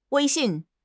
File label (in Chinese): "Wei1 xin4", 汉语口语; 汉语口语新时代-初级下